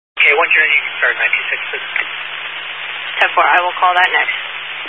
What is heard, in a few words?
Highway Patrol, Phoenix Metro West Area, scanner